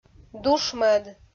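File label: "душ-мӧд", Komi, female; kpv audio dict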